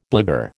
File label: "blagueur", American